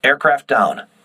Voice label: voice message aircraft destroyed, crew ships english